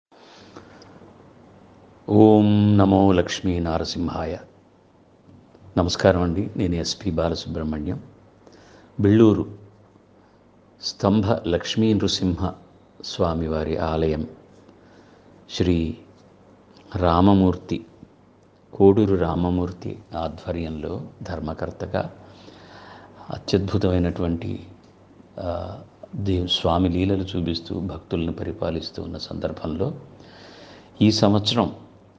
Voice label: spb message telugu